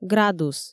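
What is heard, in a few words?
"градус", Komi, female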